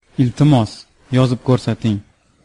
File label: write, uzbek